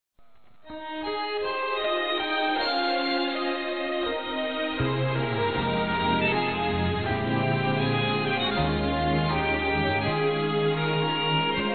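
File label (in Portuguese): PARA CASAL, Masculino; Telemensagens; Dia Dos namorados; Telemensagens 2017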